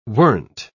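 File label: "weren't", British English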